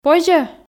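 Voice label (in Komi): "позьӧ", Komi, female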